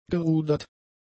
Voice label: "gerudert", German; adjectives